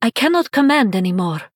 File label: Female Draenei ERROR FULL MINIONS